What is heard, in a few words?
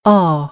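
"awe", American English; AmericanBritish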